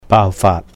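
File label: "Baau Faat", Cantonese; break out; 爆發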